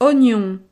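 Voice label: "ognon", French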